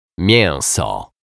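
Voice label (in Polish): "mieso", Polish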